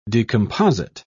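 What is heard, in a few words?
"decomposite", British English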